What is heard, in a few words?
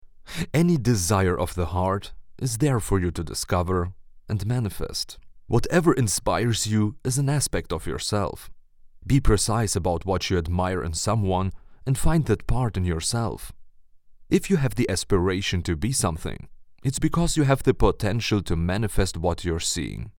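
German English male English Accent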